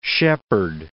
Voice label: "Shepard", English